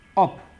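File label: "up", Nigerian Pidgin, male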